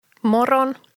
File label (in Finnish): "Moron", Frasboken